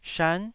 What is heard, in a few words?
"shan9", taiwanese Syllables